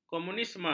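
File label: "komunisme", Malay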